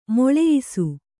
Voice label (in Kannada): "ಮೇಳಯಿಸು", Kannada